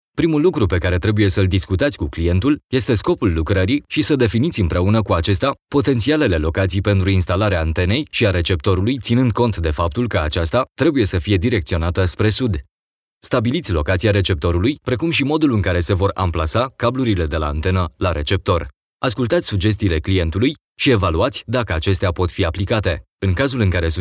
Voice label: ro elearning